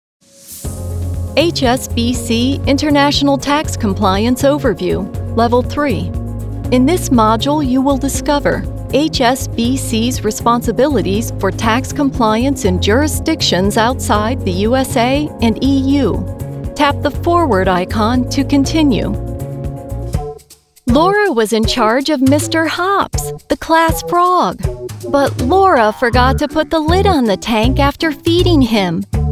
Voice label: eLearning